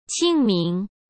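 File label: "清明", Mandarin Chinese; chinese flashcards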